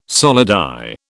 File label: "solidi", British